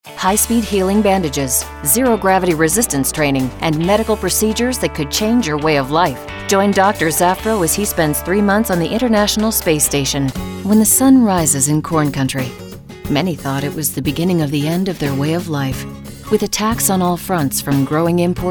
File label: narration, female